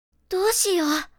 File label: What should i do anime girl character